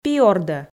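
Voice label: "пи ордӧ", Komi, female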